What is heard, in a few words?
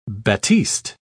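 "batiste", British English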